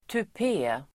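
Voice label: "tupé", Swedish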